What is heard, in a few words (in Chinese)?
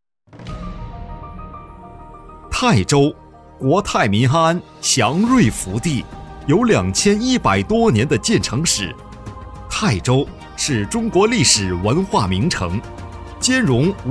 政企宣传